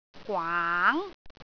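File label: "gwaang2", Cantonese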